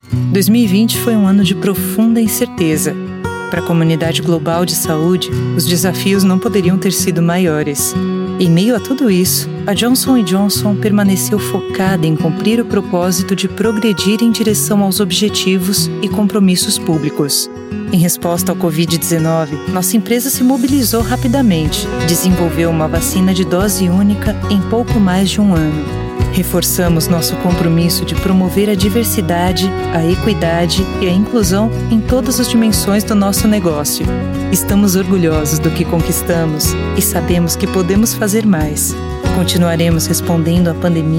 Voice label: portuguese brazilian corporate videos